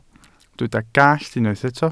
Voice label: "gallt", welsh